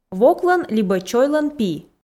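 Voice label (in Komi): "воклӧн либӧ чойлӧн пи", Komi, female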